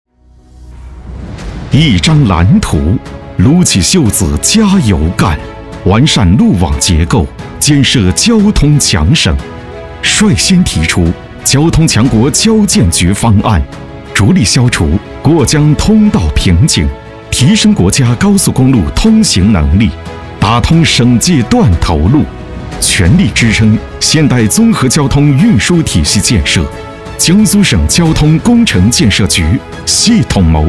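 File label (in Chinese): 男【大气党政】; 交建局巡礼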